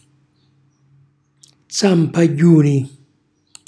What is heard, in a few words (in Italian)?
"zampagghjuni", Calabrese